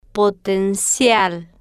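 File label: "po-ten-cial", Spanish, female, slow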